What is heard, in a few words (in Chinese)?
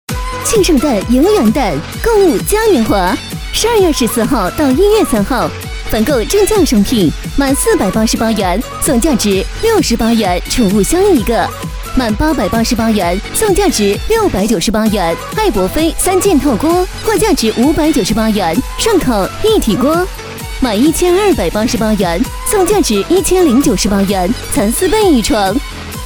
女 促销 商场 贺新年